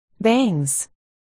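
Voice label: "bangs", spoken word, articulation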